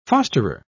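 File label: "fosterer", English, British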